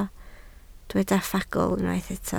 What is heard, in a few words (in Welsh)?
"ffagl", Welsh